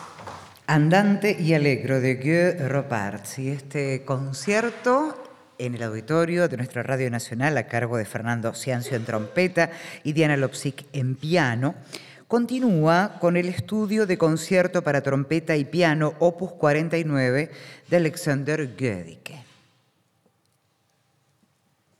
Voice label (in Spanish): locutora